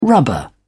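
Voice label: "rubber", British English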